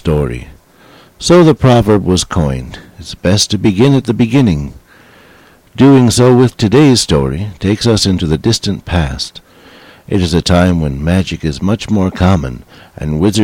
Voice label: narrator